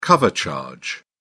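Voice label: "cover charge", British English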